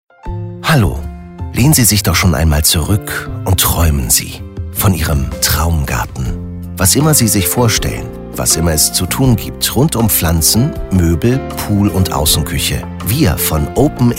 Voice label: Ansage

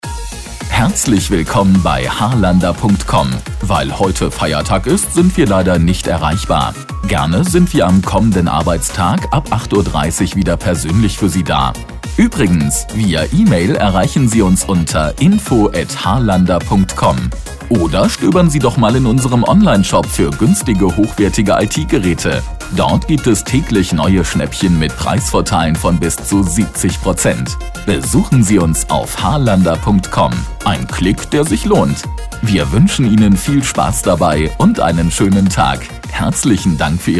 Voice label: Ansage

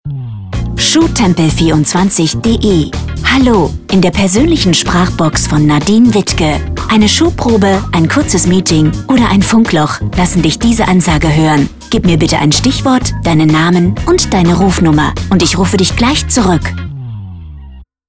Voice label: telefonansagen mobilbox; schuhtempel24 de

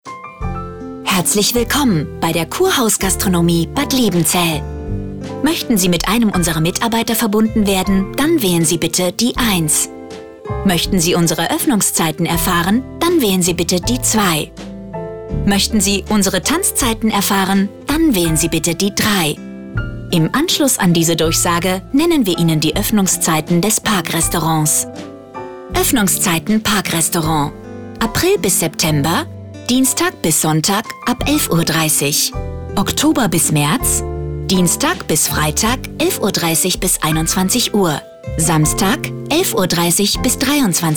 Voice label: Ansage; Kurhaus

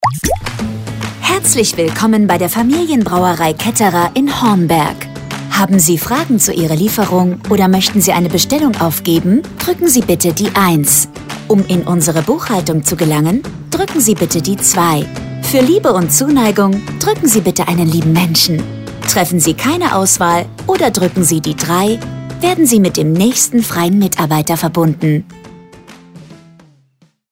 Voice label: Telefonansage Brauerei; Ketterer

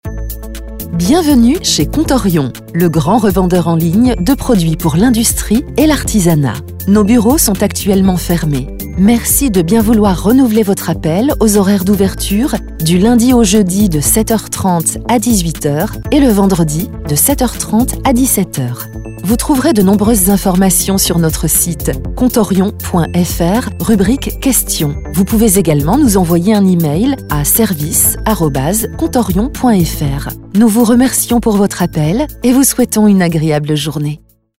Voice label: Ansage stereo

